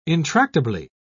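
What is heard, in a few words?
"intractably", British English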